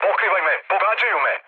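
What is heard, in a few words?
Serbian aircraft damaged mood low, speech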